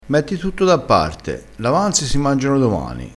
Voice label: "avanzi", crespinese

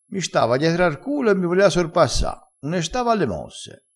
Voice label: "une sta", dialettale

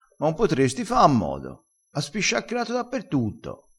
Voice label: "spisciacchera", vocabolario dialettale crespinese

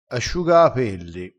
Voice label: "asciugaapelli", crespinese

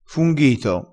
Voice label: "funghito", vocabolario dialettale

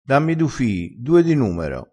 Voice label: "du", crespinese

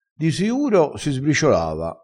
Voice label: "manca un pelo", crespinese, vocabolario dialettale

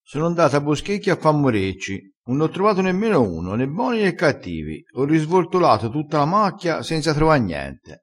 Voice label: "risvortola", crespinese, vocabolario dialettale

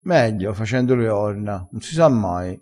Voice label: "facendo le orna", vocabolario dialettale

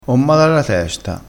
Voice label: "mar", crespinese, vocabolario dialettale

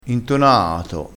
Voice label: "intonaato", crespinese

